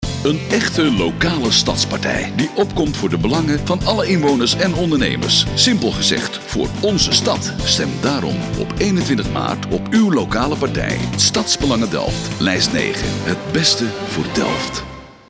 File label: commercial; delft